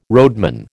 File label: "roadman", American